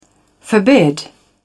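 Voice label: "forbid", en, uk British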